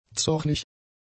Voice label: "zornig", German; adjectives